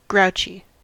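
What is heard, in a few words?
"grouchy", US English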